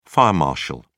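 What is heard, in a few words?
"fire marshal", British English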